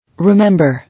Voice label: "Remember", English